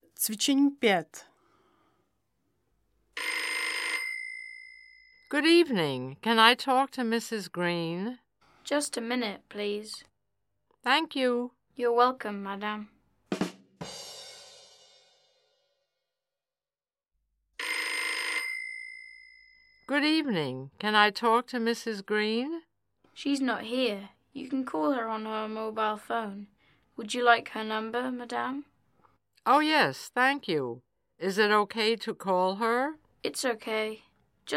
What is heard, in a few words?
phonecalls